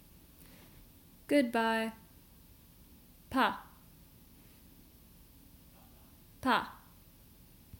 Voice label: Goodbye informal, Polish; the talking polish phrasebook

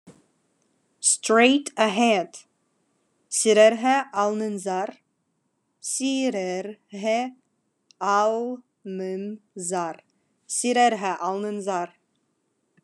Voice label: Khakas Straight ahead

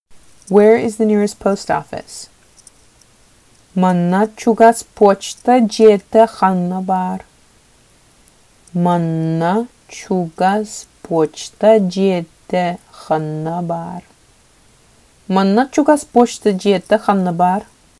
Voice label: Where is the nearest post office, Sakha

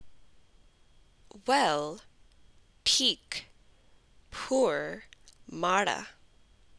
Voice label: Punjabi Well and Poor

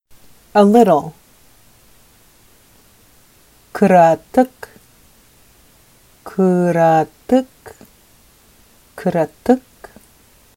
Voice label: Sakha A little

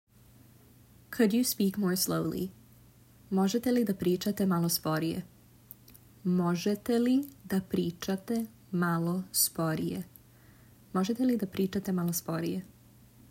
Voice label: Could you speak more slowly, serbian

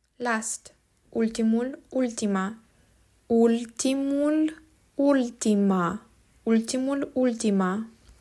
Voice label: "Last", Romanian

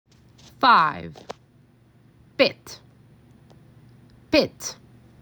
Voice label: MAC 6, macedonian phrasebook

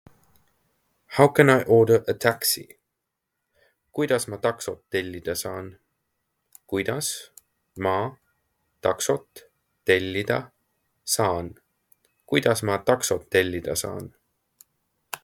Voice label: How can I order a taxi, Estonian; the talking estonian phrasebook

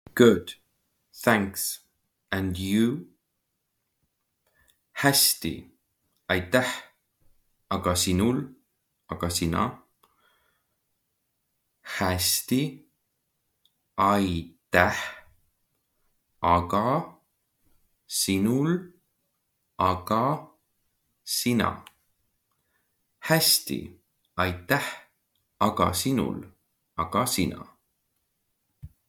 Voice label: Good thanks and you, estonian; the talking estonian phrasebook

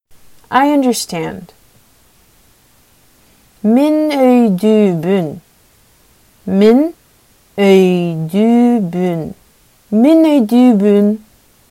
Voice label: Sakha I understand

